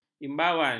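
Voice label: "imbauan", Malay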